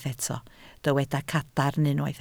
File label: "cadarn", Welsh